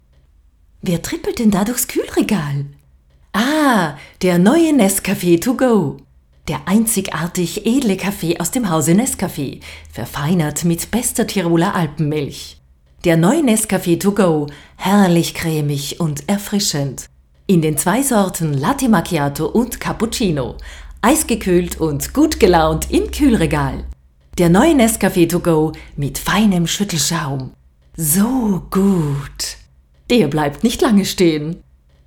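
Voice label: german austrian female commercial; voice demos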